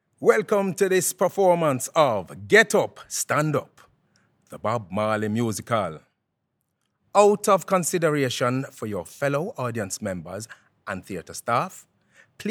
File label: Pre show announcement; GUSU